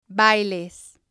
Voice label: "bailes", spanish

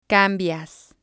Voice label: "cambias", Spanish

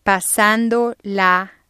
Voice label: "pasando la", spanish

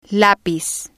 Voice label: "lapiz", spanish

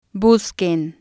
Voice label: "busquen", spanish

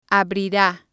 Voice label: "abrira", spanish

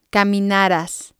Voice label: "caminaras", spanish

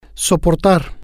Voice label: "soportar", spanish

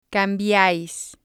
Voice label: "cambiáis", Spanish

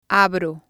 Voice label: "abro", spanish

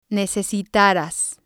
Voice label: "necesitaras", spanish

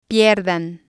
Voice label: "pierdan", spanish

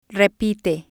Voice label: "repite", spanish

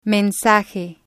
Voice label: "mensaje", spanish

